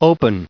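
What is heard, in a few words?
"open", US English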